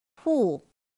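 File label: "pù", Mandarin Chinese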